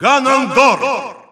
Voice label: Russian Announcer; Ganondorf; SSBU